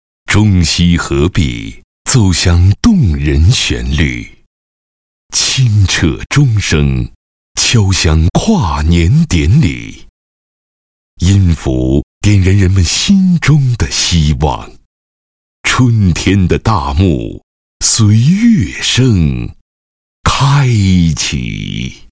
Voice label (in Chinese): 男 CCTV3; 艺览天下; 跨年